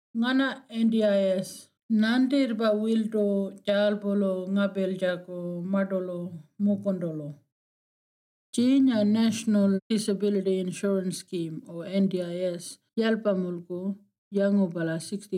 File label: Martu